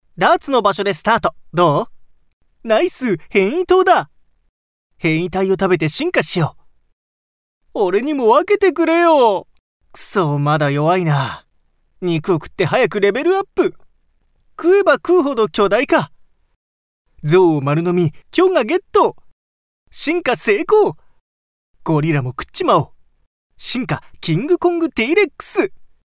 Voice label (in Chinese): 日语男声 游戏信息流